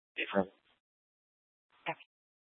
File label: Police 1 Dispatch